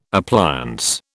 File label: "appliance", British